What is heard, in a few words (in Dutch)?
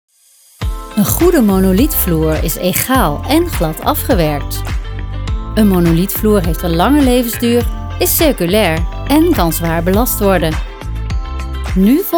Uitleg praktisch